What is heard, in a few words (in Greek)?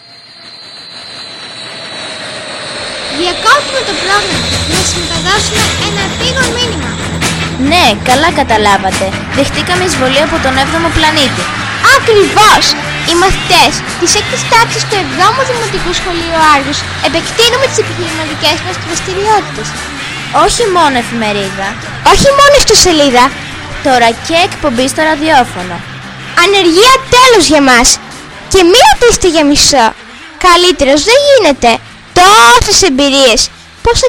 SPOT; DIAFIMISIS EKPOMPWN